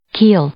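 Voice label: "keel", English; noun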